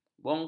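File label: "bongkar", Malay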